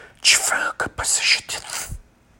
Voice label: Voiceless Consonants Chant Fast